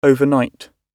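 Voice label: "overnight" British English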